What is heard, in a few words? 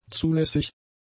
"zulässig", German; adjectives